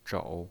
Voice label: "zhou3", pinyin

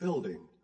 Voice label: building male; task acknowledge; command-conquer

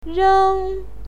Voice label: "reng1", pinyin

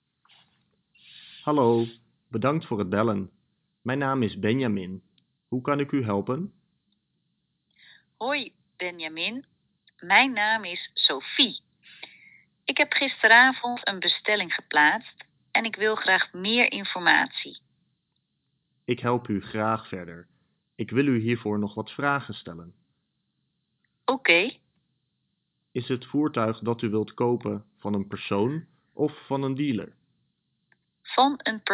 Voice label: dutch duo, mono, speech

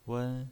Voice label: "wen1", pinyin

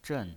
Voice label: "zhen4", pinyin

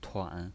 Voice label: "tuan3", pinyin

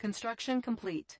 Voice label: construction complete girl; task complete; command-conquer

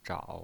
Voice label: "zhao3", pinyin

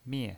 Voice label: "mie4", pinyin

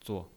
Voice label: "zuo0", pinyin

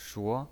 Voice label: "shuo2", pinyin

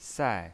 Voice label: "sai4", pinyin

